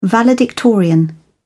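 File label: "valedictorian", British English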